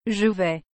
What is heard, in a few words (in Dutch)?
"je vais", frans; aller